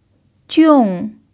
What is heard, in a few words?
"jiong4", chinese